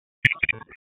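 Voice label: Raleigh Police North District Tactical, p25; wake p25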